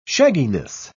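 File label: "shagginess", British English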